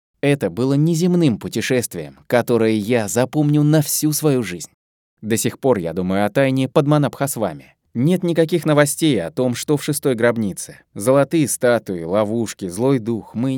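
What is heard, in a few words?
story, Russian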